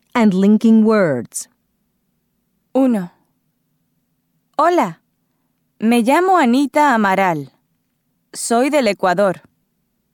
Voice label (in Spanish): pronunciacion oraciones; textbook; Chapter 3